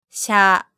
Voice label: "sha", hiragana

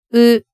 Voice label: "u", hiragana

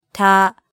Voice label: "ta", hiragana

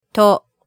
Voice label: "to", hiragana

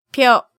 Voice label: "pyo", hiragana

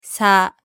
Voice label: "sa", hiragana

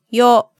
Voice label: "yo", hiragana

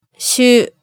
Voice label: "shu", hiragana

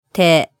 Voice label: "te", hiragana

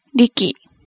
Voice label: "riki", hiragana

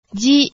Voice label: "zi", hiragana

